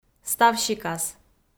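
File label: "ставсикас", Komi, female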